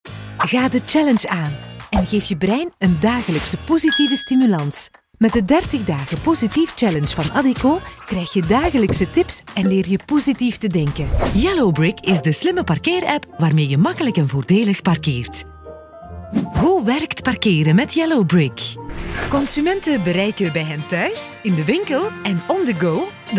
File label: corporate